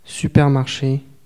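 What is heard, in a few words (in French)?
"supermarché" French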